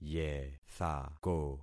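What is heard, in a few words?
"yethako", concatenated syllables; Affixing experiment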